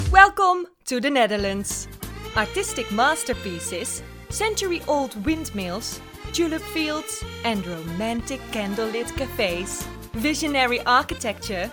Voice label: English

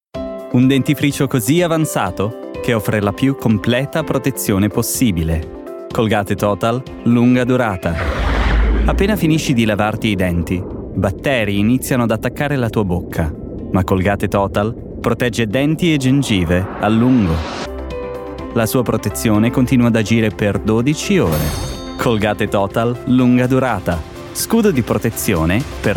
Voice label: Italian

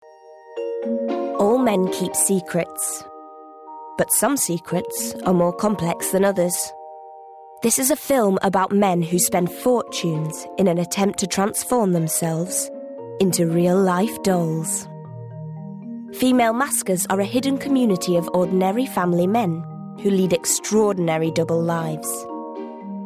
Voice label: Narration

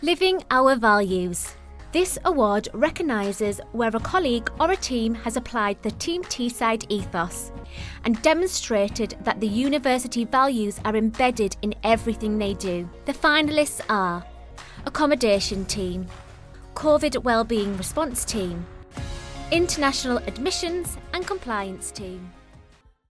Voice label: corporate, regional accents

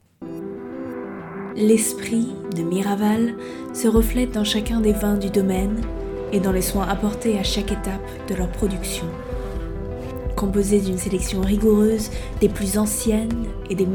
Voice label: French

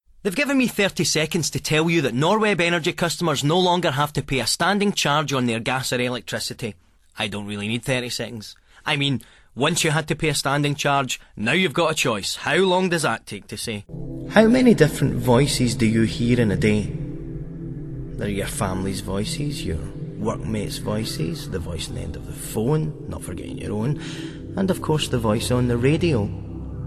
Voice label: Scottish; 20s-30s. Male. Scottish.

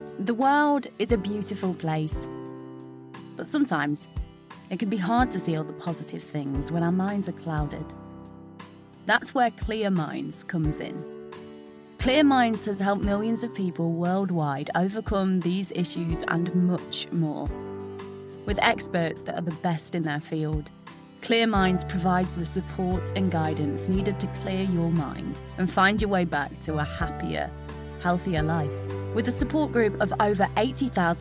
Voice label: Corporate

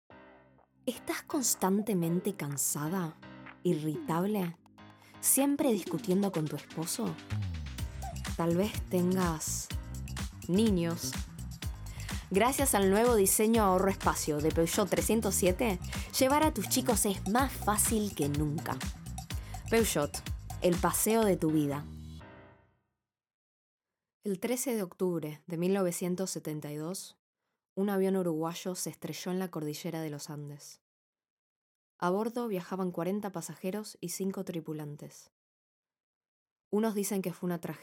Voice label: Spanish-Latin (Argentina), Female; Teens-30s

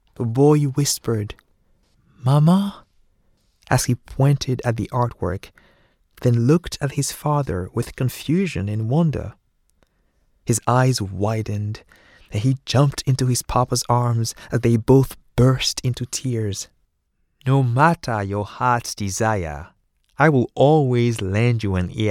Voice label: English, French, Male, 20s-30s, voiceover; European

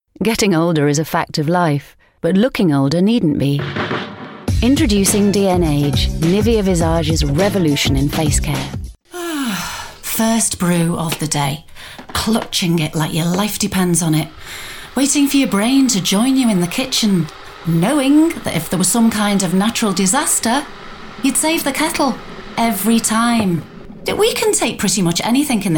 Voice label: commercials

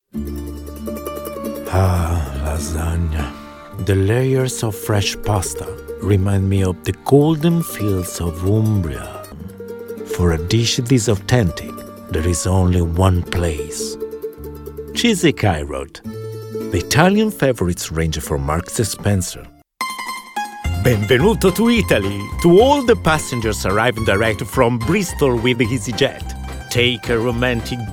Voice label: English

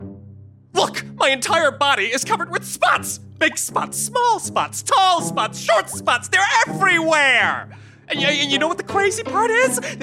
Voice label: Animation

